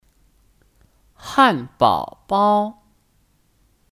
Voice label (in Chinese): "汉堡包", Hànbǎobāo; Kata benda; Hamburger